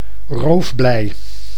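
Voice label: "roofblei", Dutch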